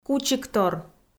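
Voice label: "кучиктор", Komi, female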